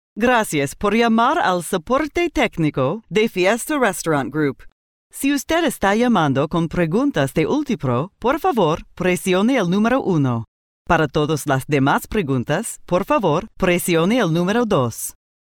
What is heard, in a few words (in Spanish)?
Spanish AM secondary